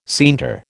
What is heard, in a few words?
"scienter", American